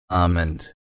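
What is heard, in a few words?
"almond", Australian English, male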